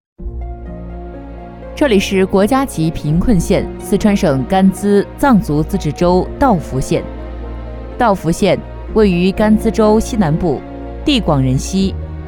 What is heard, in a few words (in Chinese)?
女 专题类; 这里是国家级贫困县